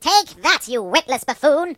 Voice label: "Enemy down", British; hedgewars